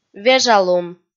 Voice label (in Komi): "вежалун" kpv f